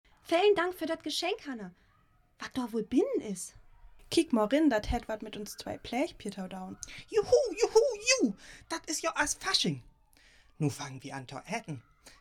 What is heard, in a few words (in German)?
Dialog, niederdeutsch